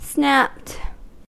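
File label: "snapped", US English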